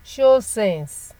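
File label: "show sense", Nigerian Pidgin, female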